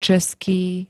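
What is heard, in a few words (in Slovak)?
"český", Slovak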